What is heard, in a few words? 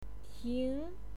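"ting2", Mandarin Chinese pinyin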